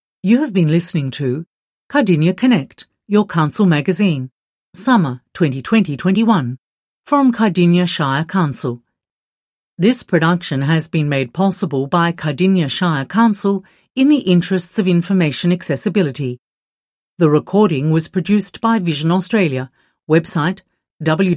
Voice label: closing announcement